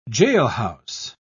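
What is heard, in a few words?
"jailhouse", British English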